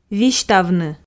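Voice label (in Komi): "висьтавны", Komi, female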